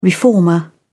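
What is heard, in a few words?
"reformer", English, uk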